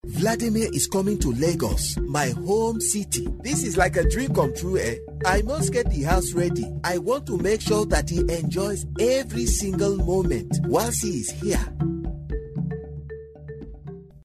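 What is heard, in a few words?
African Accented, male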